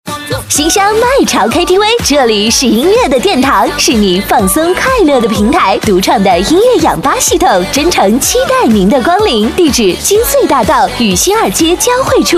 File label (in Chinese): 广告 品牌形象 KTV 激情; 女